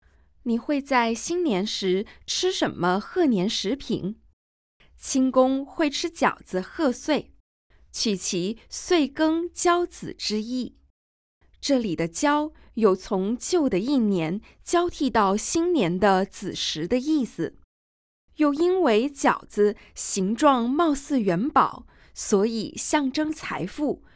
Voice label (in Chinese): Mandarin VO; audio guide; g2-from-dawn-to-dusk